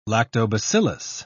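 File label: "lactobacillus", British English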